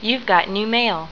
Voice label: American girl - standard spiel